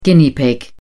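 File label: "guinea pig", British English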